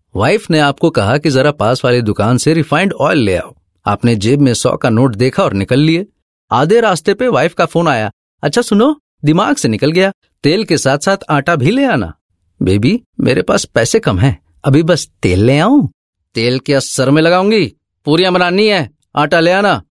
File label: Normal character, male voice